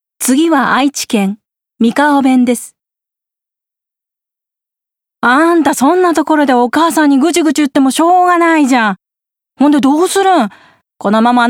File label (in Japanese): 方言